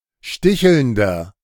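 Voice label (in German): "stichelnder", German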